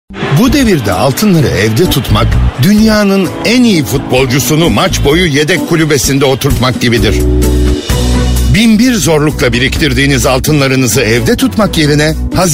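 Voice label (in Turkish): reklam, Turkish; hazine mustesarligi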